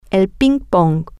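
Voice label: ping pong, Spanish, female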